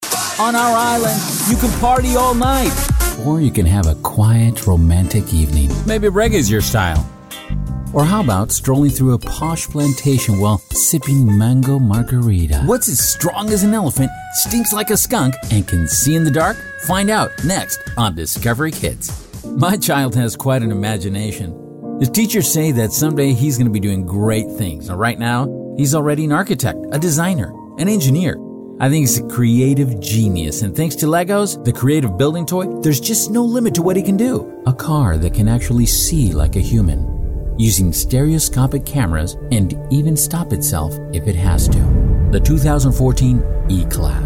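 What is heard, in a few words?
Spanish Latin America Accented English; GoLocalise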